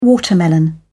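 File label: "watermelon", British English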